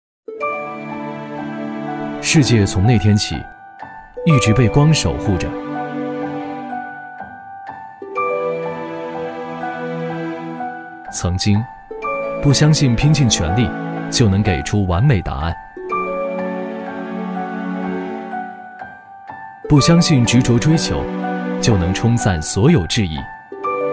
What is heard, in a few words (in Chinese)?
男 走心; 慈溪吾悦